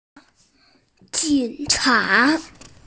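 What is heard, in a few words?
"police", hearing impaired; jing3cha2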